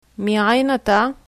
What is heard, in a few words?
where from, hebrew